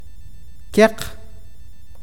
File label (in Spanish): rojo, awakateko